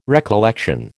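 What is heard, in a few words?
"recollection", American English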